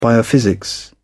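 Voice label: "biophysics", British English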